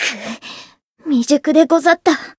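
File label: Voice win barely, Japanese; azami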